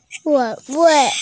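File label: "zuo4wei4", Mandarin Chinese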